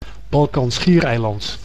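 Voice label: "Balkanschiereiland", Dutch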